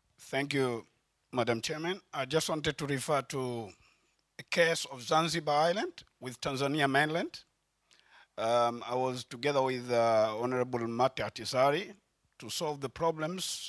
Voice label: panel discussion audience